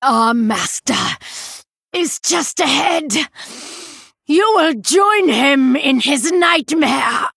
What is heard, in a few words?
Female Night Elf; Anacondra; Guff; Victory